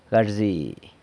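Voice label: "garzi", San Lucas Quiaviní Zapotec; guts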